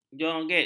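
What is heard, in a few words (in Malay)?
"jonget", Malay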